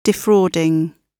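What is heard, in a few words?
"defrauding", British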